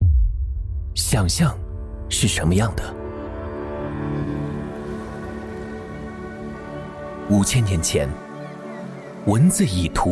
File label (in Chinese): 男 宣传片 品牌新品发布; 至像